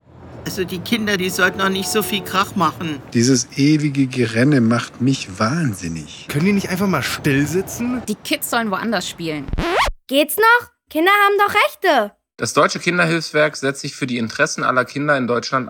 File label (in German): Radiospot; Manuel Neuer; DKHW; Bewegung; 50 Jahre DKHW; Jubilaeumskampagne